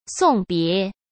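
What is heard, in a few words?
"送别", Mandarin Chinese; chinese flashcards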